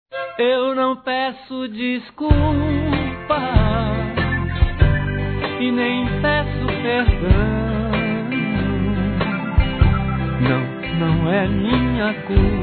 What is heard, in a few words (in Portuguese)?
Parabéns pelo sonho realizado. (F); Telemensagens; Parabenização; fem